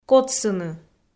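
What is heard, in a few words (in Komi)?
"котсыны", Komi-Zyrian, female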